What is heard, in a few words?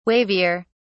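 "wavier", British English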